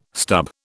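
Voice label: "stub", British English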